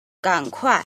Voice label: "jin", Chinese